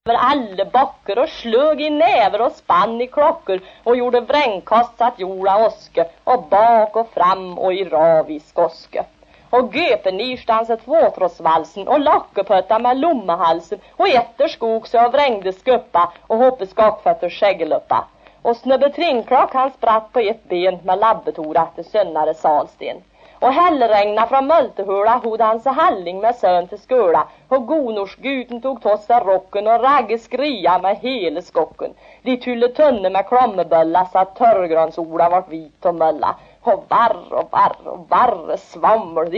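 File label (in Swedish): Järnskog Värmland, Svenska sagor, dialekter; Folkminnen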